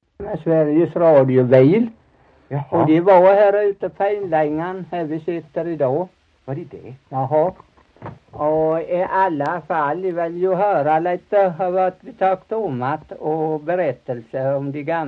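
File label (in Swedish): Torhamn Blekinge, dialekter; Folkminnen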